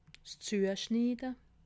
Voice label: "zueschnide", alsace

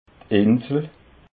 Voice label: "insel", alsace

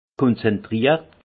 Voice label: "konzentriert", alsace; vin; concentré

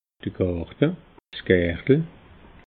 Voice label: "garte", alsace

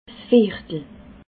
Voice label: "viertel", alsace

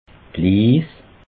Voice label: "liis", alsace

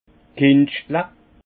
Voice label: "kentschle", alsace; vin

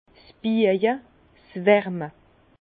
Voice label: "biaje", Bas Rhin; vin; ceintrage au feu

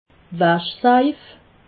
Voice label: "waschsaif", alsace

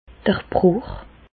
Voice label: "bruch", Bas Rhin; fracture; medecine

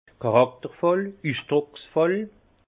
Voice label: "charaktervoll", Alsace; vin; typé

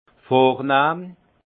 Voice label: "vornahm", alsace; vin; distingué

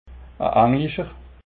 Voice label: "englischer", alsace

